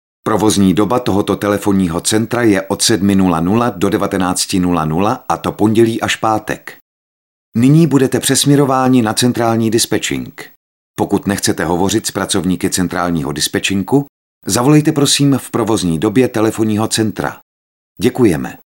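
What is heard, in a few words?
male Czech IVR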